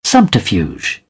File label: "subterfuge", British English